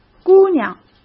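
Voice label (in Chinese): "姑娘", Mandarin Chinese; chinese-flashcards; hsk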